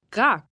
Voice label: Russian How, Questions, 1 boy 11 yrs, Russian, (How), human voice, child, kid, speak, talk; Talking Sound Effects